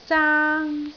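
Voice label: "zaang1", Cantonese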